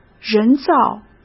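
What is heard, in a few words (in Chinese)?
"人造", Mandarin Chinese; chinese-flashcards; hsk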